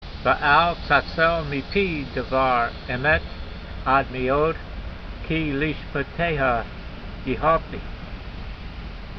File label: voice, hebrew language; Psalm 119:43; ve'al-tatsayl meepee devar-e'met ad-meod, kee le'meeshpateyha yeehaltee